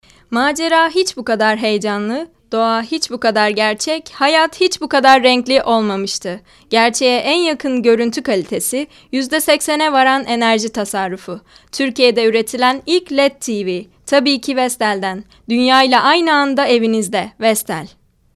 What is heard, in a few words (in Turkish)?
REKLAM